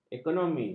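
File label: "ekonomi", Malay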